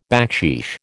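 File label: "backsheesh", American English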